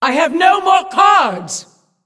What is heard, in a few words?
Female Demon